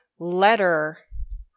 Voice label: "letter", English

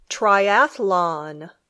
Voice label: "triathlon", English

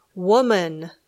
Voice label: "woman", English

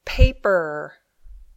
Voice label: "paper", English

